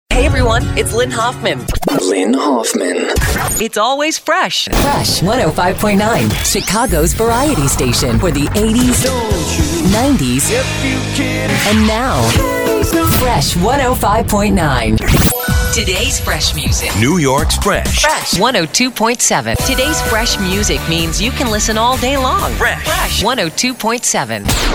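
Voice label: voice over ac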